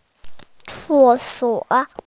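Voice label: "ce suo" Chinese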